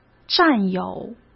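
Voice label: "占有" Mandarin Chinese; hsk; chinese-flashcards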